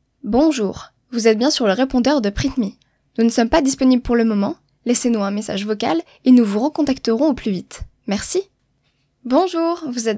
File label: FRENCH